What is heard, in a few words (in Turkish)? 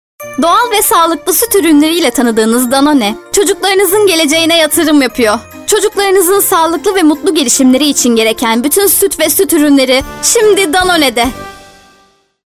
reklam; danone